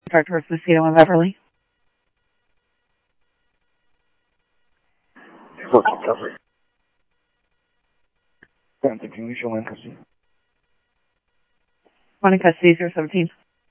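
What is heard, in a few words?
police radio scanner traffic, Mesa Simulcast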